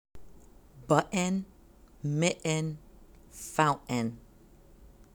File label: Glottal Stop T